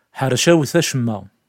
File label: Kabyle; Ḥercawet acemma.